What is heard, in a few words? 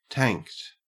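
"tanked", Australian English; pronunciation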